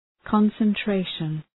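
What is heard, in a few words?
"concentration", English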